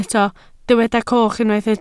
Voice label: "coch", Welsh